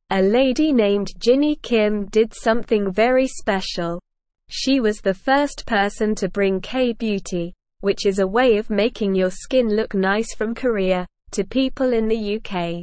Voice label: English Beginner SLOW Reading; English Newsroom

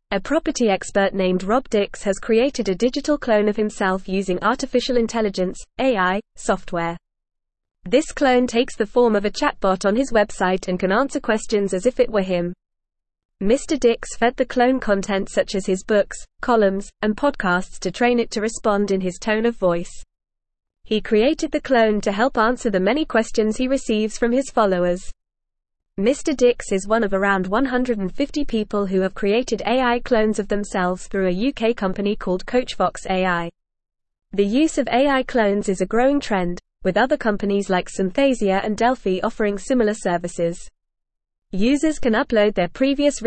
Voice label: English Advanced FAST Reading; English Newsroom; UK Property Expert Creates AI Clone to Streamline Workload

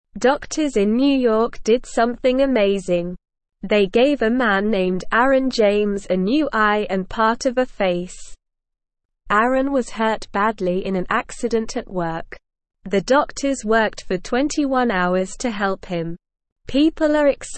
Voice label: English Lower Intermediate SLOW Reading; Amazing Man in New York gets new face; English Newsroom

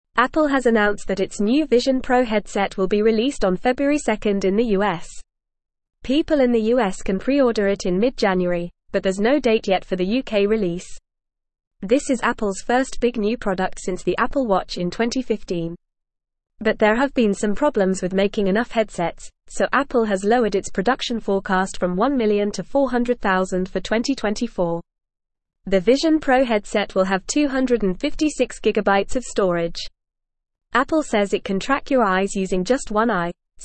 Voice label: English Upper Intermediate FAST Reading; Apples Vision Pro Headset Available for Pre Order; English Newsroom

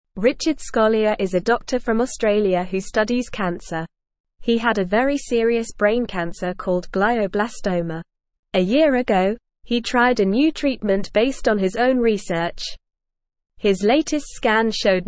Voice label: English NORMAL Reading; New Treatment Helps Doctor Beat Brain Sickness

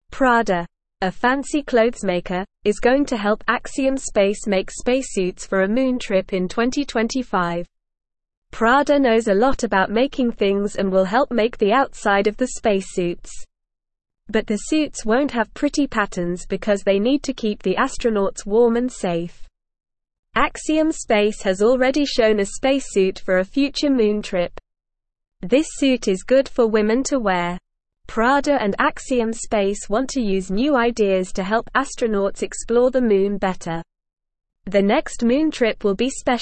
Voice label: English Newsroom Beginner NORMAL Reading; Prada Helps Make Space Suits for Moon Trip

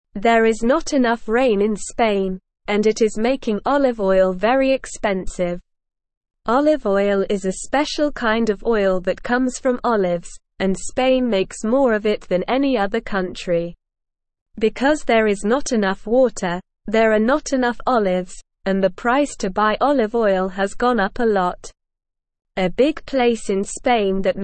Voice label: English Beginner SLOW Reading; Not Enough Rain in Spain Makes Olive Oil Expensive

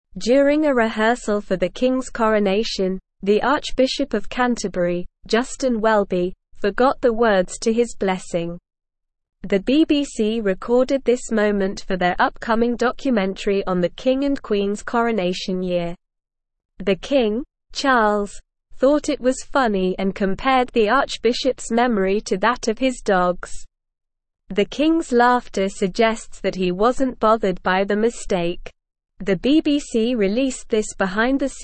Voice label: English Upper Intermediate SLOW Reading; English Newsroom; Archbishop forgets words King laughs Coronation doc

